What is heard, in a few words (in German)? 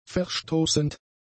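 "verstoßend", German; adjectives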